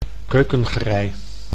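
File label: "keukengerei", Dutch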